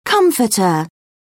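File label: "comforter", British English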